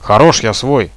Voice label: friendly fire, Russian; bot radio